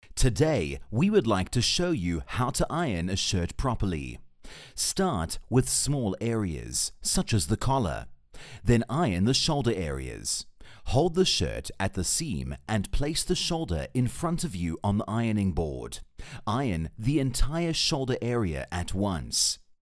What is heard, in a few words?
english neutral corporate